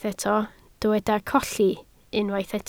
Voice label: "colli", Welsh